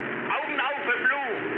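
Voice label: German player friendly fire mood high, speech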